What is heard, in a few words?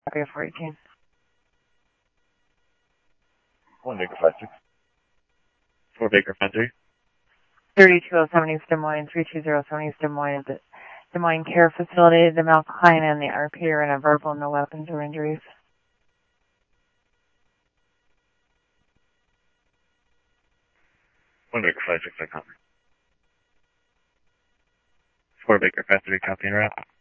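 Simulcast police department, scanner audio trunk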